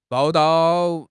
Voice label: "bao4 dao4", 汉语口语; 汉语口语新时代-初级下